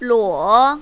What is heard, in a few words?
"luo3", mandarin, female